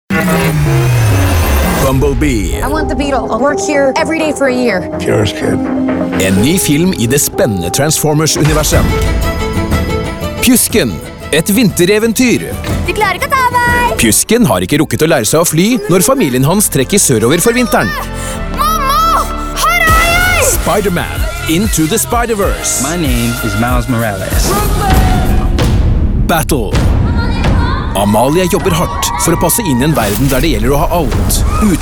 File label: Movie Trailers